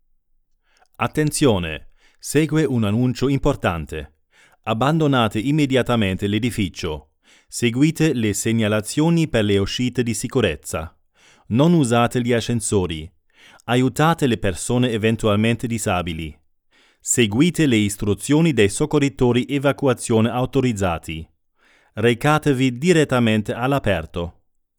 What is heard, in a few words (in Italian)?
Annuncio; Siemens Schweiz AG